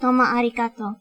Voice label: Japanese Thank You